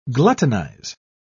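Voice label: "gluttonize", English, British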